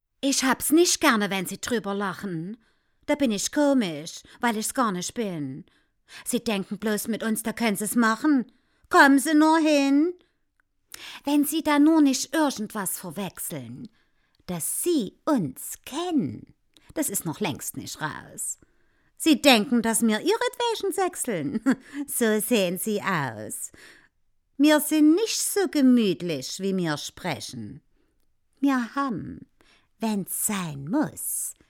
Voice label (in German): Dialekt